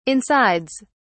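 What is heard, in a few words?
"insides", British English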